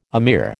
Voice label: "emir", American

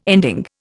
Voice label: "ending", Indian

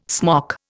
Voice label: "smock", Indian

